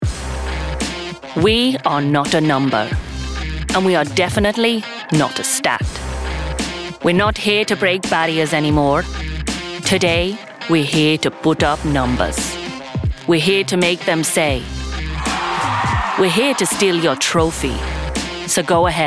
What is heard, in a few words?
Indian Read